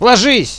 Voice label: get down, hostage reactions

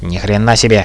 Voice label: oh god, hostage reactions

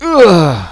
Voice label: awww, hostage reactions

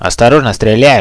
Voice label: look shooting, hostage reactions

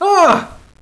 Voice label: awww, hostage reactions

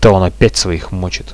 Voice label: friendly fire, Russian; bot radio

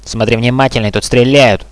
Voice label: look shooting, hostage reactions

